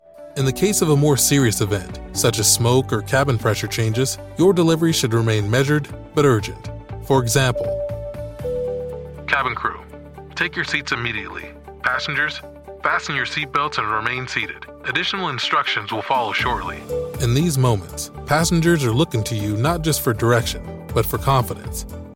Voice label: Airline Onboarding, Corporate, Friendly, conversational, approachable Male Voice; Flight Attendant Onboarding Vid